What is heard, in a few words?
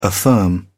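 "affirm", English, uk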